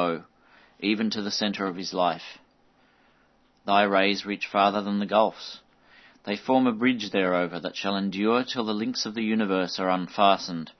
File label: chant to sirius, spoken word